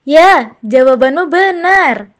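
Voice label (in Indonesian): "benar", Indonesian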